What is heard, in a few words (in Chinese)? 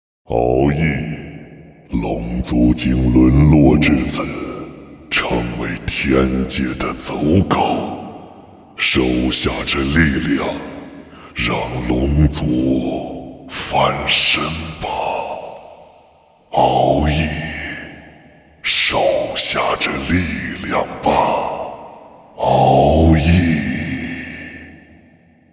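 角色 男 浑厚威严 加效果 龙族; 角色-男27-浑厚威严-加效果-龙族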